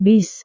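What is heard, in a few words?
"bus", Indonesian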